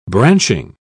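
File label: "branching", British English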